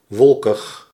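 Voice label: "wolkig", Dutch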